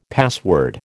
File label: "password", American